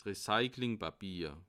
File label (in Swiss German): "recyclingpapier", alsace